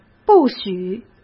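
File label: "不许", Mandarin Chinese; hsk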